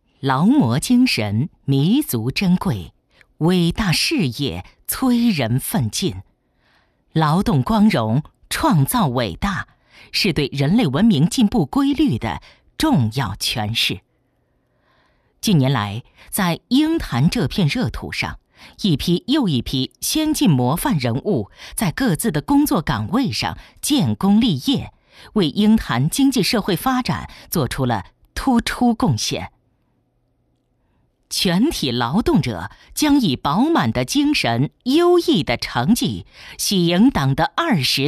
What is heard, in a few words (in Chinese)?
女 宣传片; 鹰潭